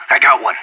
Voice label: enemy down, player vo anarchist; Counter-Strike Global Offensive